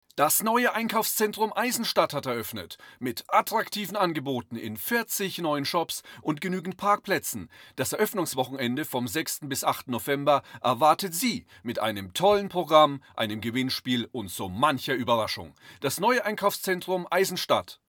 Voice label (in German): Hoerprobe Werbung Einkaufszentrum; Eisenstadt